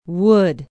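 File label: "wood", English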